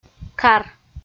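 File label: "кар" Komi, female; kpv audio dict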